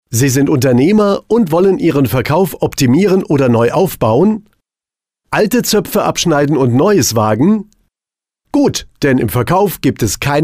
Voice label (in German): Erklaervideo; Fit4development